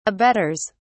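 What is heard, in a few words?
"abettors", British English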